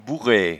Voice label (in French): "bourree", patois jura